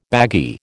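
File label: "baggy", American English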